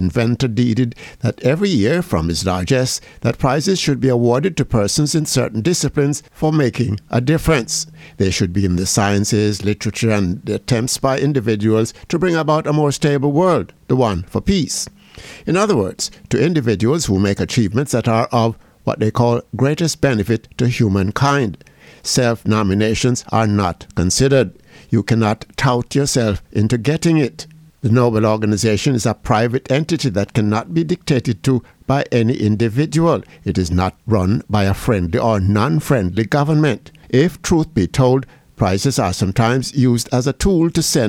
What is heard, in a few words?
COMMENTARY